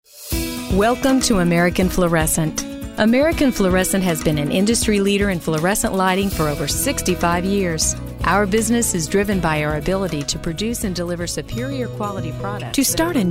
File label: Narr